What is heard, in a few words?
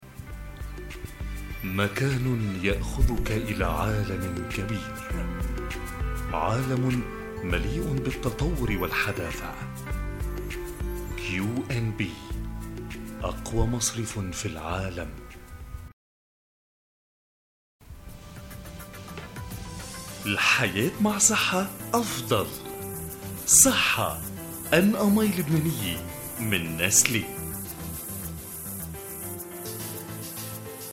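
arabic male commercial; voice demos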